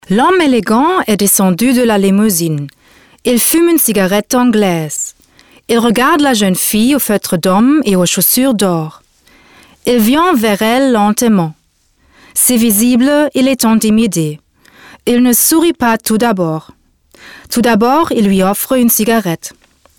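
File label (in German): fr hörbuch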